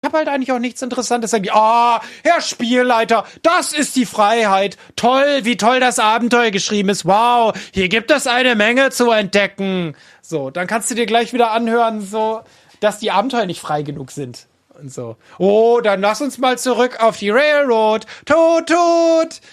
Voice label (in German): frustration